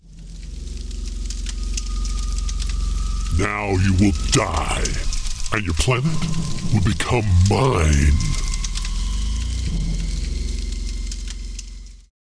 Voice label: with music; DORMAMMU